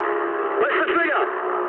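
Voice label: English attack position mood med; speech; War Thunder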